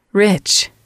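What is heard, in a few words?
"rich", English, female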